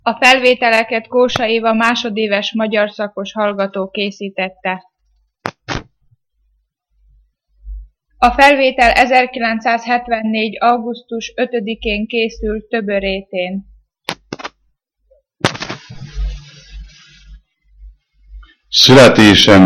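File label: dialect collection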